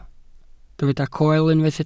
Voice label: "coel", welsh